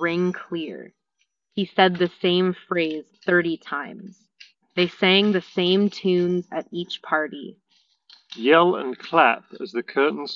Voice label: Anker Soundcore Space Q45 Office microphone sample